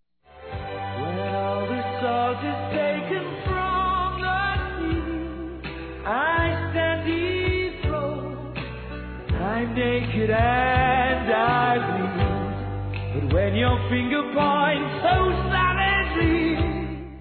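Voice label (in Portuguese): Padrinho de Casamento, masculino; Telemensagens; Telemensagens 2017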